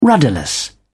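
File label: "rudderless", English, British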